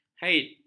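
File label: "haid", Malay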